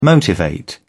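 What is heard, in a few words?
"motivate", British English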